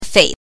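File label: "fei", Mandarin Chinese, neutral tone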